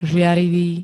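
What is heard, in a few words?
"žiarivý", Slovak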